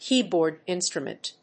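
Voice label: "Keyboard instrument", English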